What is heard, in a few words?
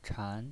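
"chan", Mandarin Chinese, second tone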